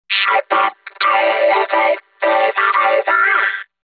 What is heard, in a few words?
vocoder; VST